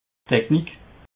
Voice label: "technik", alsace